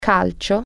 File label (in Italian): "soccer", Italian